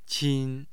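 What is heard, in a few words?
"qin", Mandarin Chinese, tone 1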